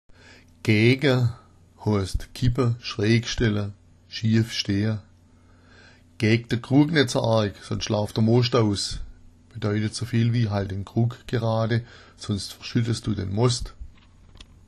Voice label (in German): gaega, schwaebisch